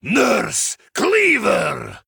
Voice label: Male Human Attack